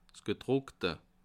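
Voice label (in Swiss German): "gedruckte", Bas Rhin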